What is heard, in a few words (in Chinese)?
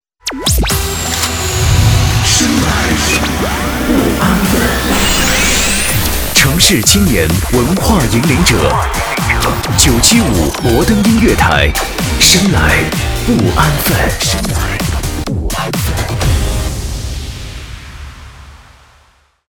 台呼; 城市青年文化引领者